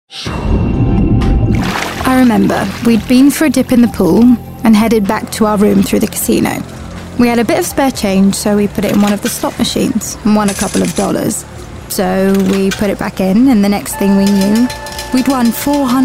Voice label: Commercial Reel

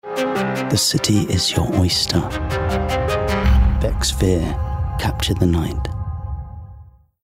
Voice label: commercial; Becks Vier

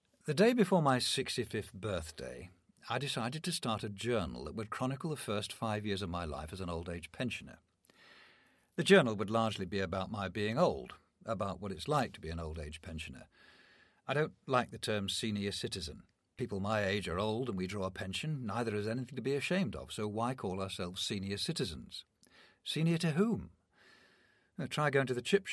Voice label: book read; Stairlift to Heaven

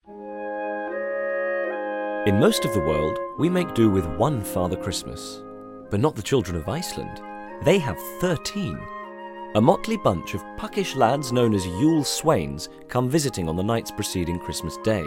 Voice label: Narrative

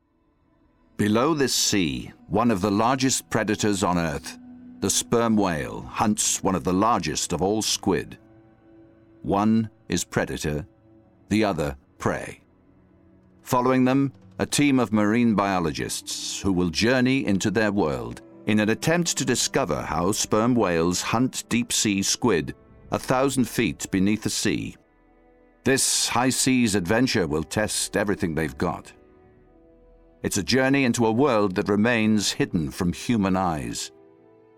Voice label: narrative

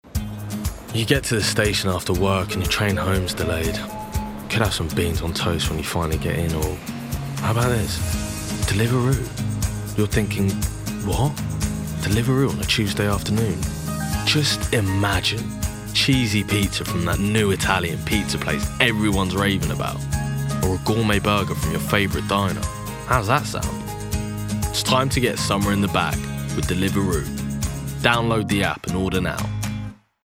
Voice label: Commercial Reel; DELIVEROO

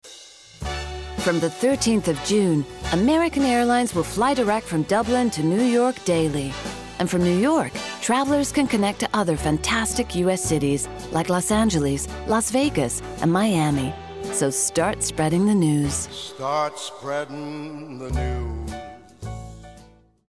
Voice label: commercial US accent; American Airlines

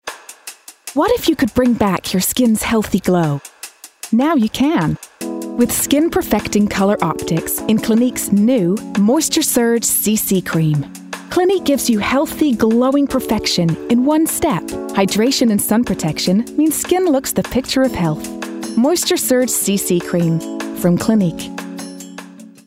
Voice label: COMMERCIAL US; Clinique

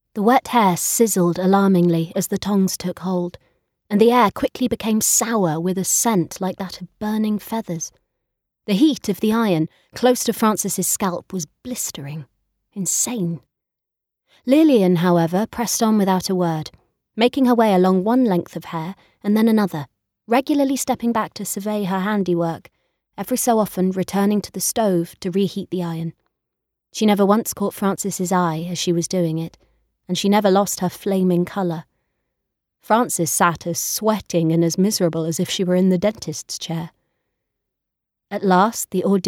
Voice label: AUDIOBOOK; The Paying Guests

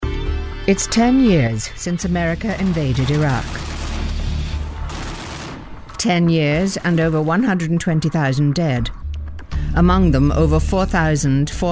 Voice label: documentary

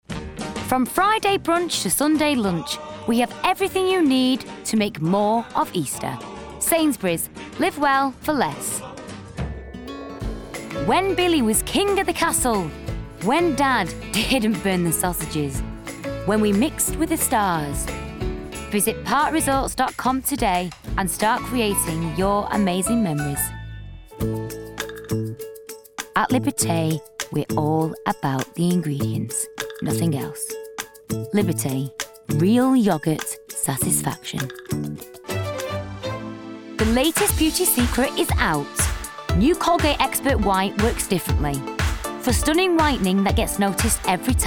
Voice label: commercial reel

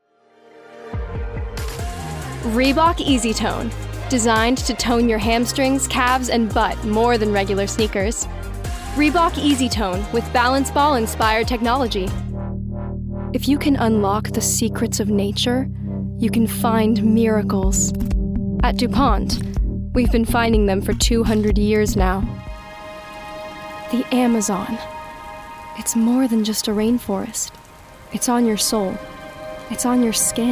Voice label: Commercial

